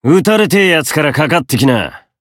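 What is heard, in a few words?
encounter, Japanese; hardy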